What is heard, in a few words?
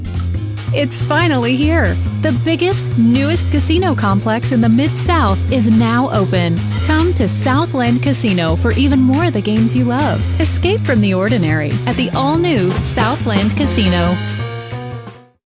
English Voice Over Sample